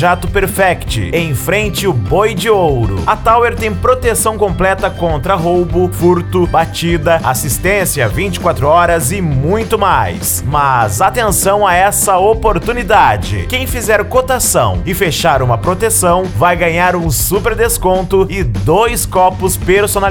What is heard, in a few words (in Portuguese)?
Locutor Protecao Veicular; Tower Protecao Veicular; Goiania GO